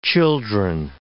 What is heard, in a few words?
"children", English